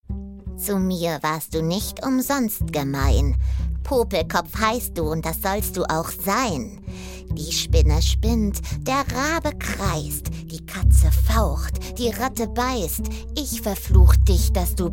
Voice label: Hexe Zauberspruch

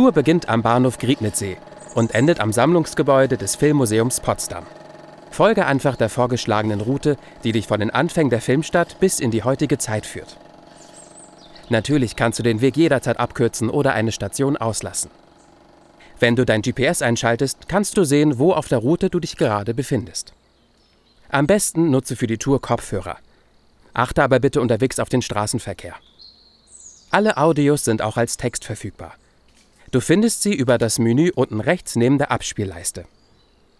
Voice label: Audiotour Einleitung; Babelsberg

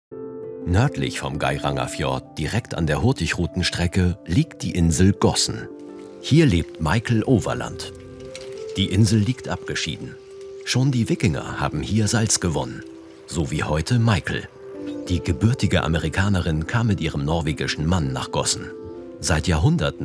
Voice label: doku off; ndr

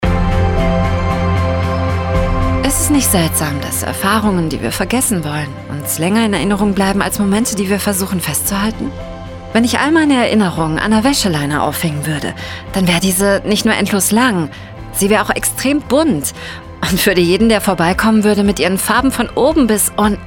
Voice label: Storytelling MONOLOG jung natuerlich; LOFT VOICES

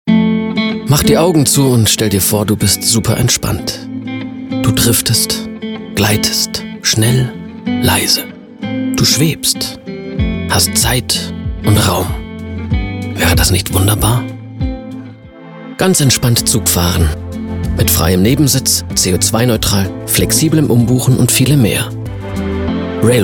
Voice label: Werbung Railways

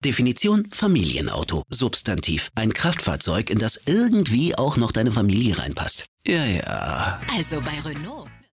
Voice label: werbung rolle genervt; renault

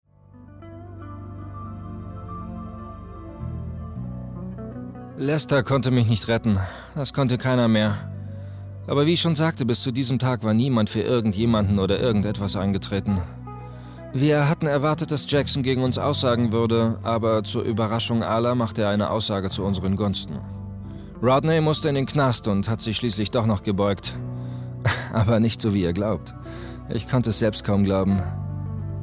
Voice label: synchron

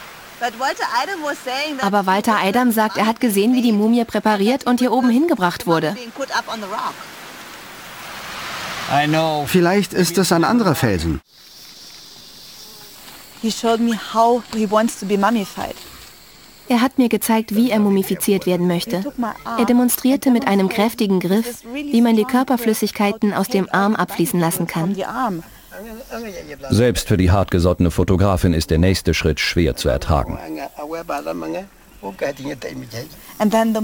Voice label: Doku Voice Over, German; Ulla Lohmann Dokumentation

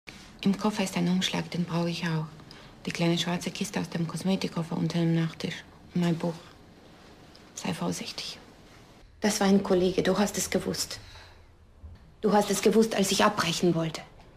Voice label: deutsch; such mich nicht

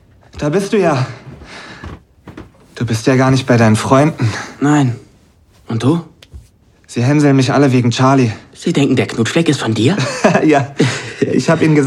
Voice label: laut; Heartstopper

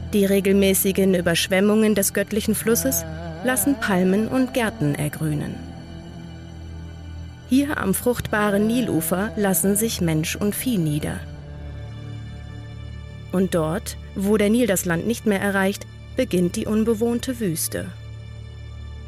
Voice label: Doku Ramses

